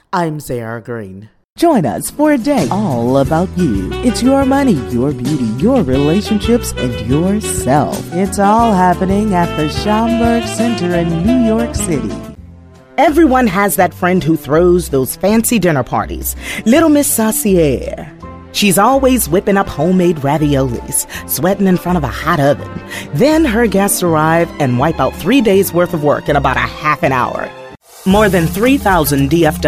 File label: voice over compilation; slated